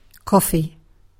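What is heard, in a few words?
"coffee", English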